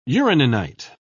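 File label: "uraninite", British English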